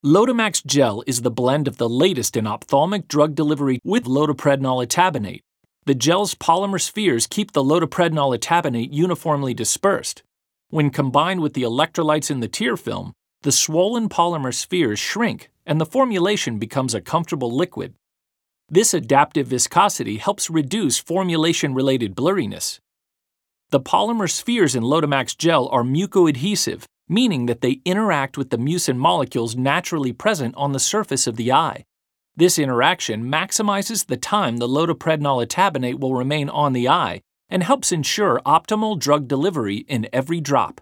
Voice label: Instructional